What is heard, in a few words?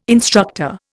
"instructor", Indian